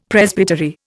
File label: "Presbytery", Indian; Dictionary